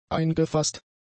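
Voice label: "eingefaßt", German; adjectives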